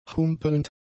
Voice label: "humpelnd", German; adjectives

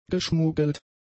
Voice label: "geschmuggelt", German; adjectives

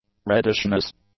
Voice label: "reddishness", English; nouns

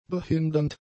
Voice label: "behindernd", German; adjectives

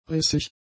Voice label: "rissig", German; adjectives

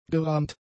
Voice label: "gerahmt", German; adjectives

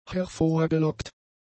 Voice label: "hervorgelockt", German; adjectives

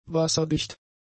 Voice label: "wasserdicht", German; adjectives

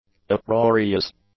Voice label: "uproarious", english; adjectives

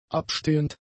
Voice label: "abstehend", German; adjectives

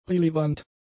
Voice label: "relevant", German; adjectives

